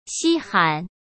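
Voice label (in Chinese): "稀罕", Mandarin; chinese-flashcards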